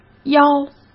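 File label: "腰", Mandarin Chinese; chinese flashcards; hsk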